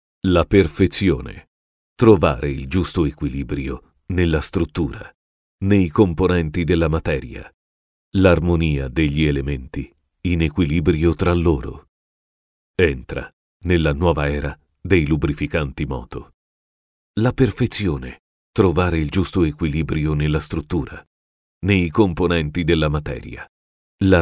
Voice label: deep voice; moto oli

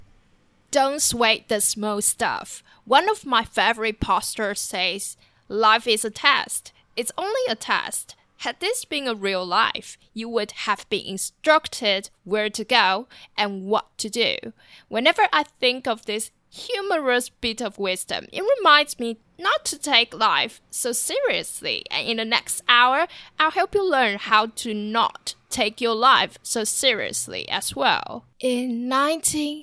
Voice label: Female English

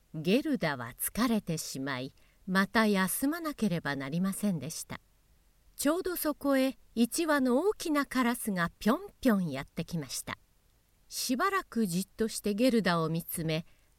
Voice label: Story Teller voice sample; Snow Queen

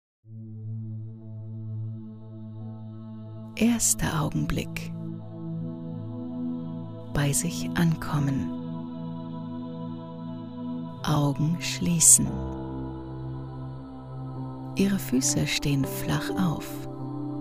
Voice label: meditation

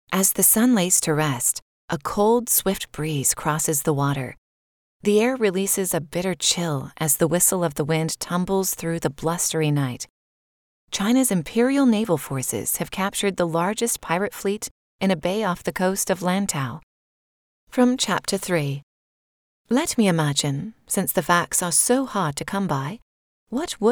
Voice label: AudioBook

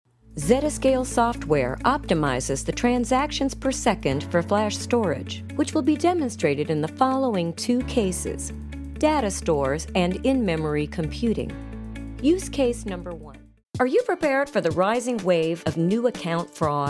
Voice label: E Learning

